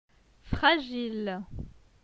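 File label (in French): "Fragile", French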